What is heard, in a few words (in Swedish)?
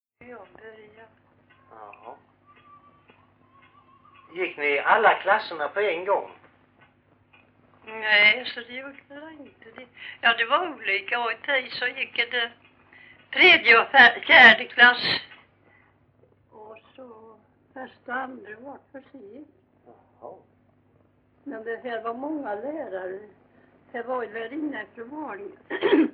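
Grimeton Halland, Svenska sagor dialekter; Folkminnen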